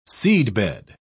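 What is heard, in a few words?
"seedbed", British English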